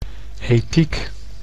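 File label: "ethiek", Dutch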